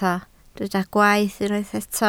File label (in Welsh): "gwaeth" Welsh